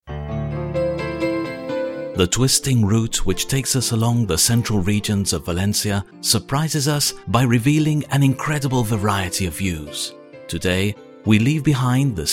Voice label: English Television